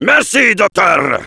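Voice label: Heavy thanks for the heal, French; "Merci, docteur !"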